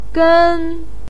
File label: "gen1", Chinese pinyin voice